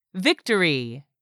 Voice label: "victory", English word; 3000 words